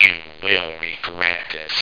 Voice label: "regret", Robots; Same Game